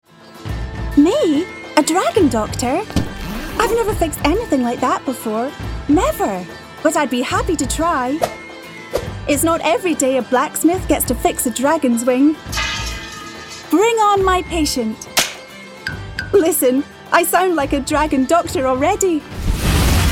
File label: Animation; regional accents